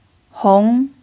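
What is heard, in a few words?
"hong2", Chinese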